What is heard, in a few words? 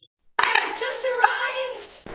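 Voice Acting Spanish Female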